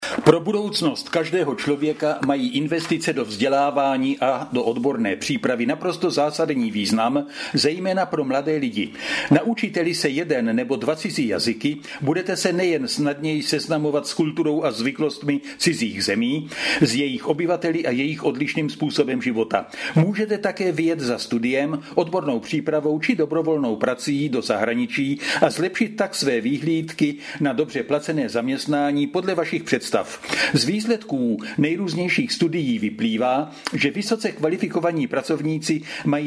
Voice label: Czech Cestina 1 Minute Trial Text